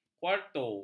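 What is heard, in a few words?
"kuarto", Malay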